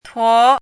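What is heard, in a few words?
"tuo2", Mandarin pinyin syllable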